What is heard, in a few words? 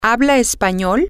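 do you speak language, spanish, female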